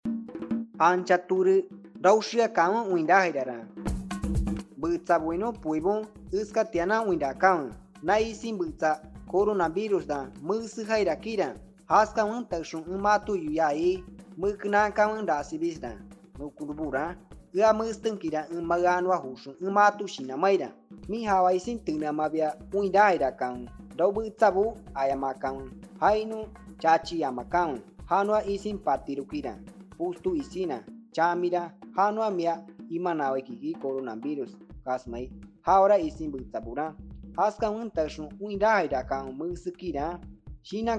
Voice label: cashinahua, spot de radio; sospecha o confirmacion de contagio; tomar medicamentos con precaucion

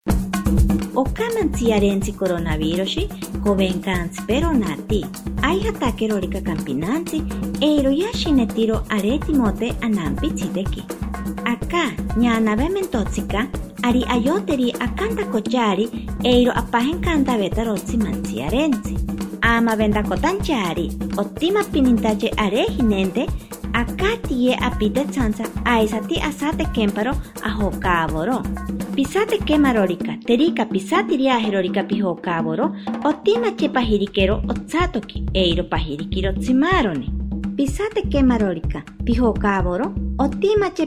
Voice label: ashaninka uso de mascarillas 1 voz, spot de radio; medidas de prevencion

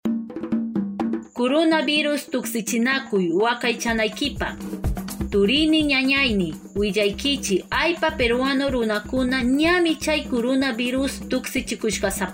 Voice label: kichwa san martin, spot de radio; vacunate para protegerte del coronavirus; vacuna contra el coronavirus

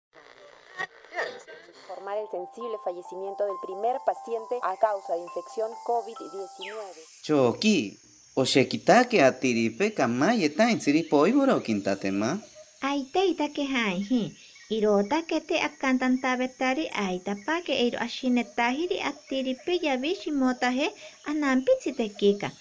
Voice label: ashaninka, spot de radio; medidas de prevencion; aqui podemos comer; aidesep

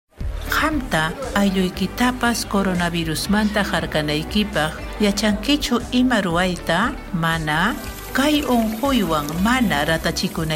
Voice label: quechua chanka, spot de radio; medidas de prevencion; recomendaciones generales

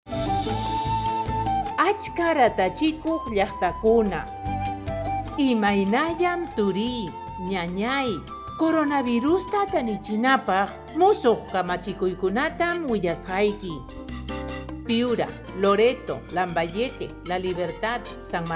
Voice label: quechua chanka nuevas medidas alto, spot de radio; precauciones al salir de mi comunidad

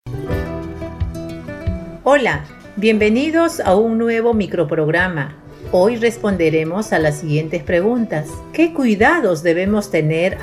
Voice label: castellano pista andina 1 voz, spot de radio; entrega de bienes y alimentos; medidas de prevencion

